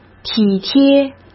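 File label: "体贴", Mandarin Chinese; hsk; chinese-flashcards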